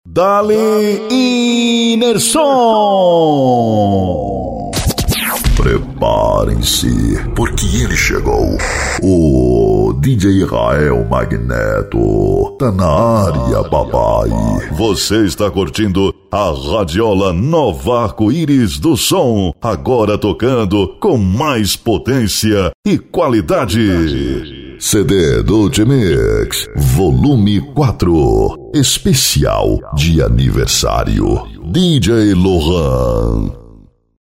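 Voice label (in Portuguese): ♂ locutor, vozes para vinhetas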